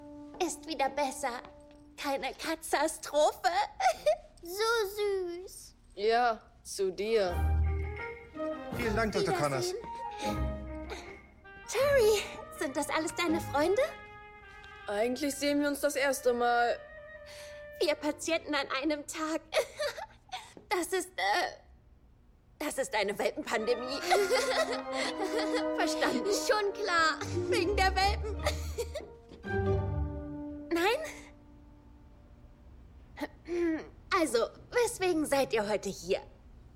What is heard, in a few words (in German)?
Synchron; Dr. Connors